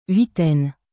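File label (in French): "huitaine", French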